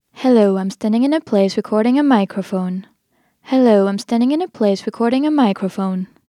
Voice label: Various Room Mic Test female